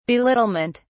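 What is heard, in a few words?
"belittlement", British English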